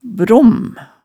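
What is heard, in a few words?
"brom", Hemsedal dialect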